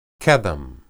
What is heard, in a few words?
"qedem", Hebrew